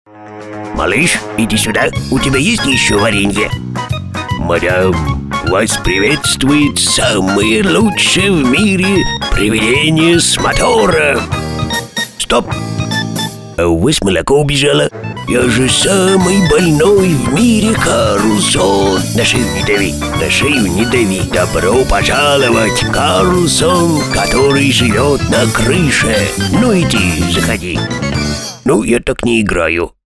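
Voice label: Parod, parody; Karlson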